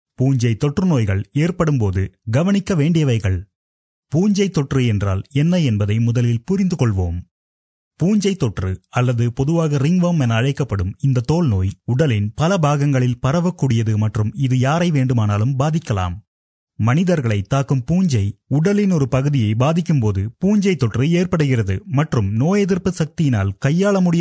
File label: tamil male